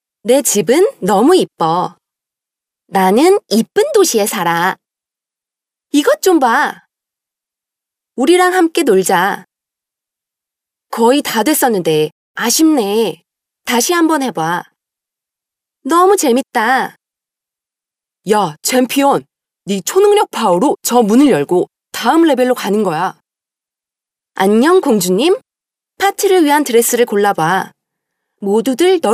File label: Female Korean Toys